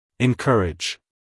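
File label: "encourage", American English, male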